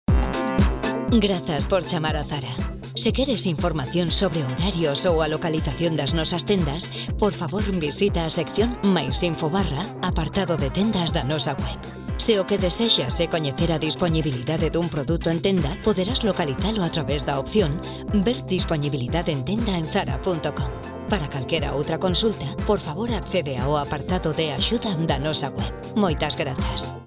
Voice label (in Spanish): Voz femenina gallego